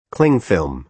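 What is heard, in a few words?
"clingfilm", American English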